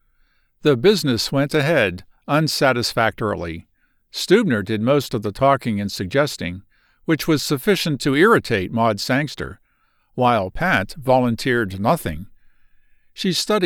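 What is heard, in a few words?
Audiobooks Fiction 3rd-person M-F dialogue Brute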